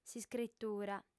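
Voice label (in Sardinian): "s'iscritura", female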